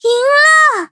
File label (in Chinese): victory, zh-hant; cookie run